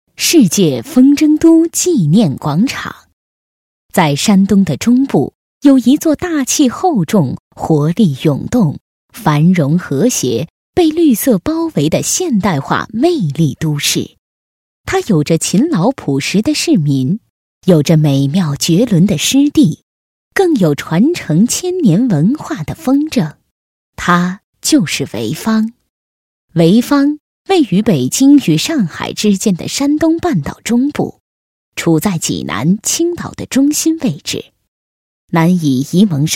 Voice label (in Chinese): 女 专题; 世界风筝都纪念广场